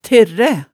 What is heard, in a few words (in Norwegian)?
"terræ", hemsedalsmaalet tale